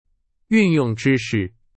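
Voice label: Chinese, example sentence; hsk4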